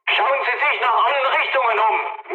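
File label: German chat, speech; War Thunder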